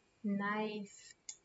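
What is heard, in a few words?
"knife", English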